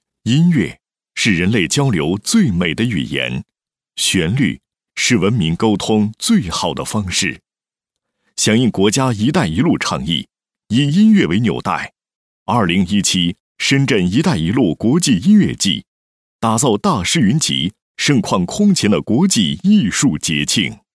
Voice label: 男, 宣传片 国际音乐季; 深圳 一带一路

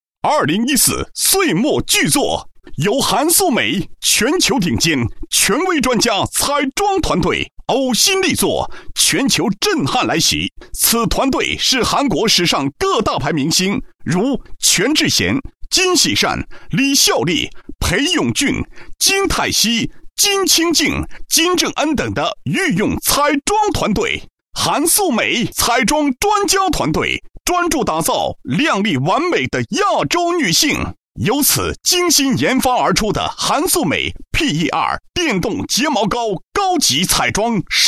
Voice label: 男声 电购 促销品牌广告; 韩塑美

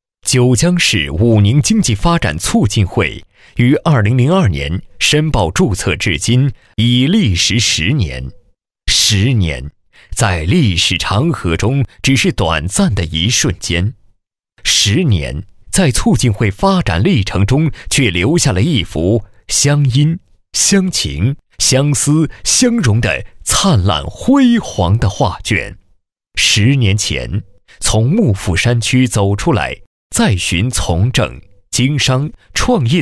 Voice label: 男 干音, 国语男声配音 磁性; 九江市武宁经济发展促进会录音小样

